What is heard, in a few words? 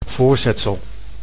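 "voorzetsel", Dutch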